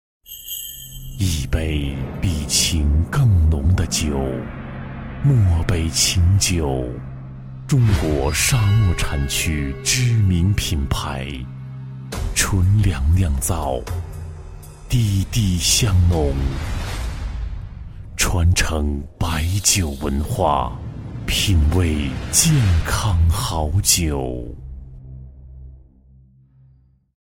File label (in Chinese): 男 广告 酒品牌宣传, 国语男声配音 磁性; 诺贝情